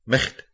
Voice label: "micht", Scots

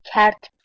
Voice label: "cart", Persian

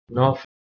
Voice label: "naf", Persian

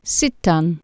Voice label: "sittan", Anglo Saxon

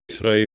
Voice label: "thre", Anglo Saxon

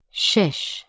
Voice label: "shesh", Persian

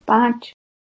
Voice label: "panch", Urdu

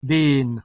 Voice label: "been", Middle English

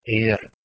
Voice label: "eare", Anglo Saxon

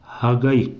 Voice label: "hagey", Pashto

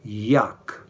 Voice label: "jakh", Romani

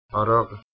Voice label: "arogh", Persian

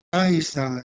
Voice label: "aesa" Ancient Greek

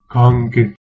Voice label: "kanki", Hittite

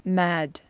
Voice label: "maed", Anglo Saxon

